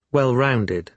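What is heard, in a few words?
"well-rounded", British English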